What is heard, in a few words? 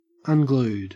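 "unglued", Australian English; pronunciations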